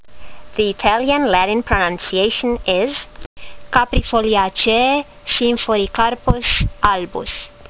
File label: latin; Symphoricarpos albus